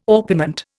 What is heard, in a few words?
"orpiment", Indian